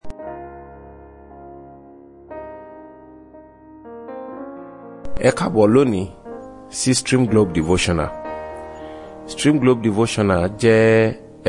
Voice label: Devotional Audio Yoruba